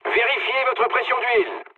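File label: damaged oil, mood low, French; War Thunder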